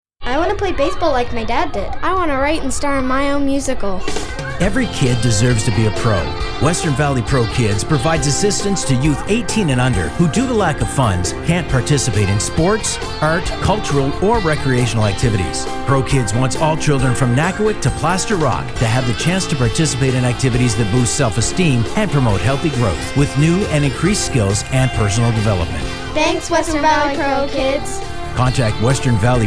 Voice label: Radio Add; western valley pro kids